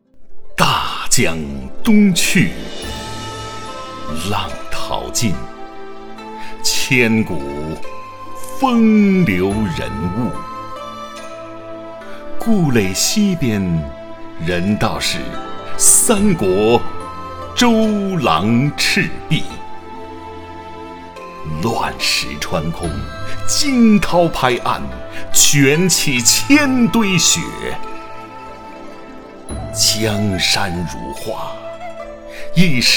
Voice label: 男 古诗词朗诵 大气激昂

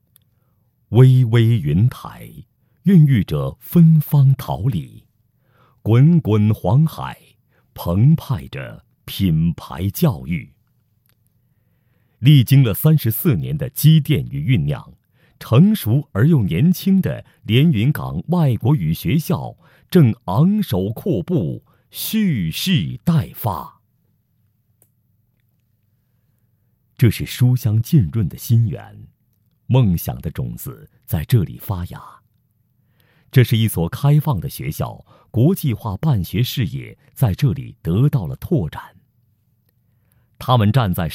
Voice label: 宣传片; 连云港外国语学校

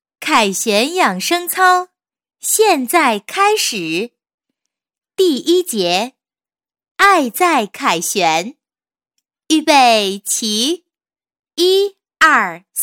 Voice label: 女 广播 养生操, 国语女声配音; 凯旋养生操

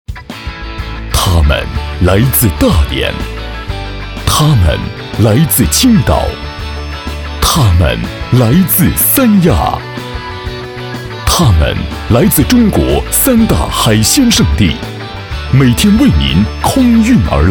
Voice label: 广告 大气磁性广告 三亚湾, 国语男声配音, 浑厚

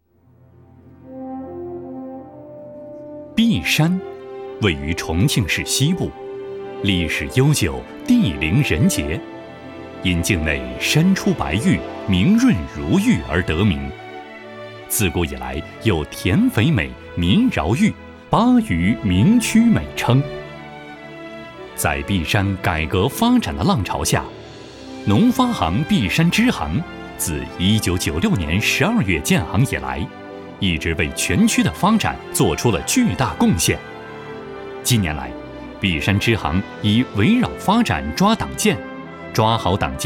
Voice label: 男 党建宣传 沉稳 大气

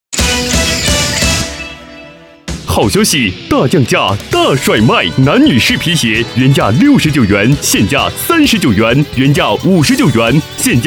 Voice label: 男 促销 好消息 男女皮鞋街边甩卖

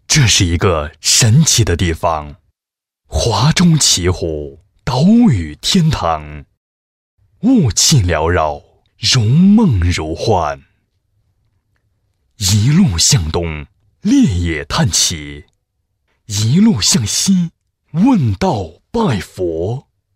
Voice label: 男, 诗歌; 人间仙岛湖

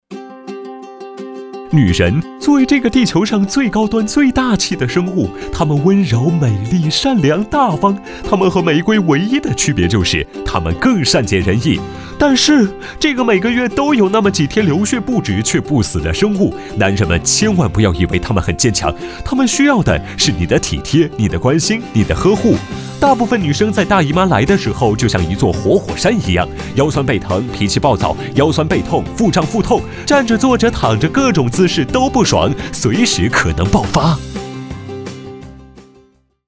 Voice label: 轻松欢快; 飞碟说; 女性生理期介绍

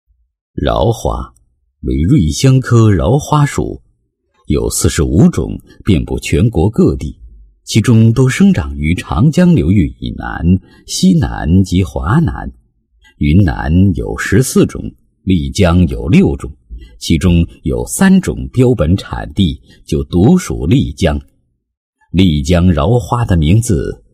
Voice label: 男 文化 纳西族东巴纸宣传

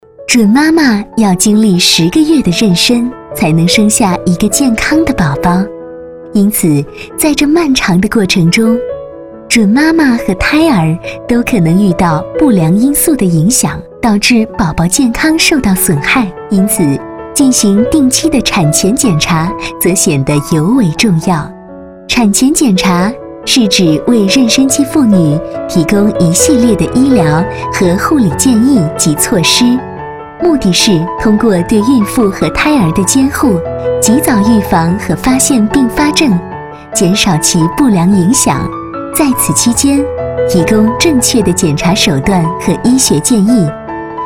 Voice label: 女 专题 医院产科 年轻 温柔 亲切 时尚; 仁爱医院产科

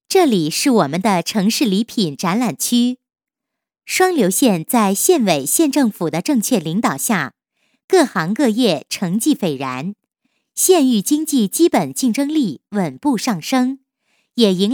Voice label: 女53 课件 城市礼品解说, 国语女声配音; 省台女配

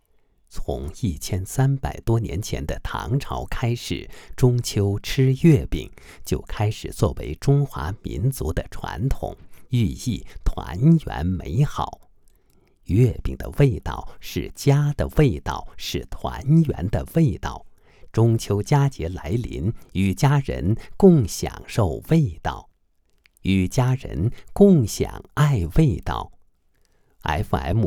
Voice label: 男, 中秋节

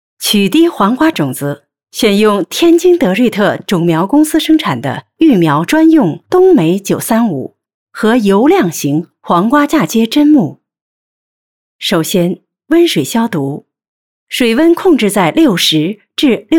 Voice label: 宣传片, 科普

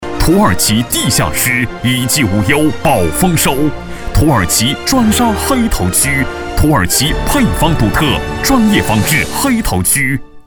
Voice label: 男 广告 激情响亮 农化广告

